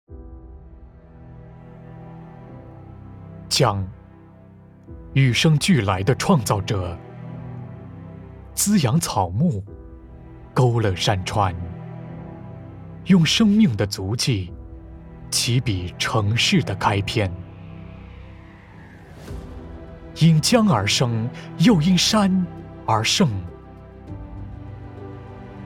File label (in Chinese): 宣传; 九江